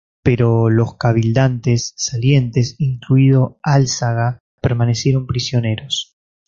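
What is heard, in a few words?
"salientes", Spanish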